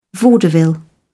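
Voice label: "vaudeville", British English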